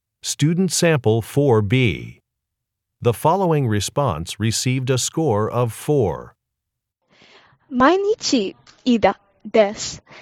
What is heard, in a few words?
Japanese conversation; AP Japanese Language and Culture